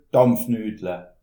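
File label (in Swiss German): "dampfnudle", Alsatian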